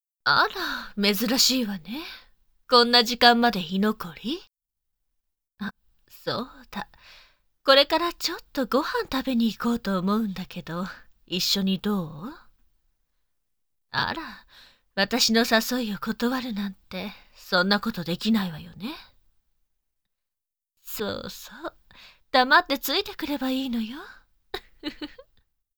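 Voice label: voice seductive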